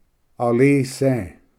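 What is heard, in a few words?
"alise", Cherokee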